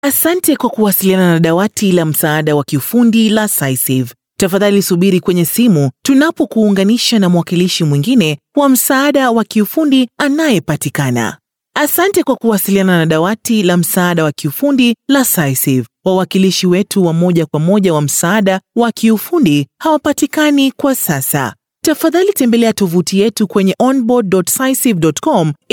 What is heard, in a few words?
Swahili IVR; Cisive